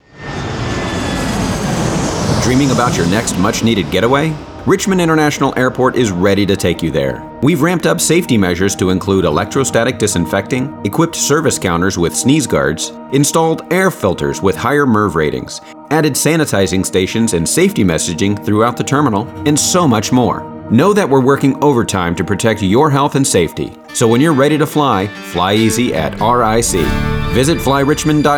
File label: Radio; RIC FlyEasy